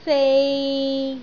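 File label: "sei3", Cantonese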